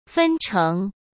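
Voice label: "分成", Mandarin; chinese-flashcards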